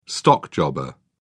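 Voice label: "stockjobber", English, British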